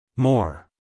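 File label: "more", American English, male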